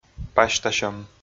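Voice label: "пасьтасьӧм", Komi, male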